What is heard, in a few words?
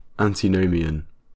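"antinomian", British English; pronunciations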